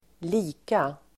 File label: "lika", Swedish